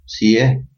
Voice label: hello, albanian gheg